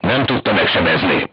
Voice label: voice message armor not pierce, crew tanks hungarian